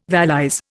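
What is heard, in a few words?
"valise", Indian English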